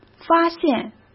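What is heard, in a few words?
"发现", Mandarin Chinese; hsk; chinese-flashcards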